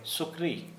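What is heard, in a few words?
Santali male sow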